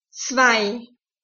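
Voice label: "zwei", German; two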